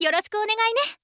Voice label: swordwoman greeting